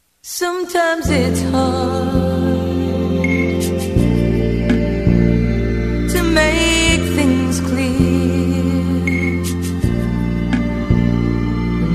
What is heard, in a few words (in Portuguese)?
aniversario FILHA MASC, telemensagem aniversario filha filho